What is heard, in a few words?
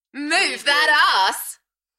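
UK female, Enthusiastic, DJ vocals; Move that arse; beanstalk audio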